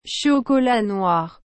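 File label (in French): "chocolat noir", French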